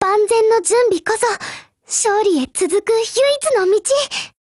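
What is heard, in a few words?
WIN, Japanese, Voice; GF Alarm; M1895MG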